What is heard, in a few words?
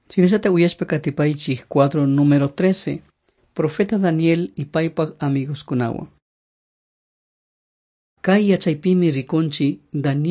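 quichua chimborazo, drama; on trial for god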